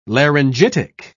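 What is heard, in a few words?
"laryngitic", British English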